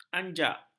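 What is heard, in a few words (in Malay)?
"anjak", Malay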